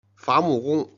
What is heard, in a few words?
"jian", Chinese